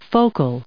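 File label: "focal", US English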